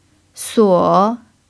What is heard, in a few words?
"suo3", pinyin